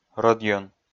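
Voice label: "рӧдйӧн", Komi, male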